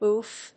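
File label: "oof", English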